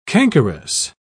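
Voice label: "cankerous", British English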